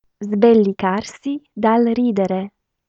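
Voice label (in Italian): sbellicarsi dal ridere, Italian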